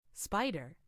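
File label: "spider", English; FoodChain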